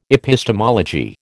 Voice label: "epistemology", American